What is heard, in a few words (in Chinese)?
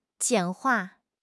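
"简化", Chinese; HSK; HSK6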